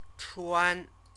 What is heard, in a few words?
"chuan", Chinese